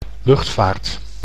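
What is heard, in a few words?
"luchtvaart", Dutch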